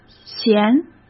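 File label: "闲", Mandarin Chinese; chinese-flashcards; hsk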